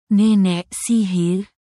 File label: indonesia, negative phrases